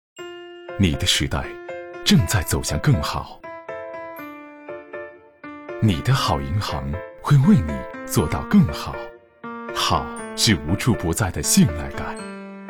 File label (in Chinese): 国语男 形象片 企业 形象广告; 兴业银行三十周年形象广告-好银行篇-2018年-60秒